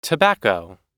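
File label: "tobacco", English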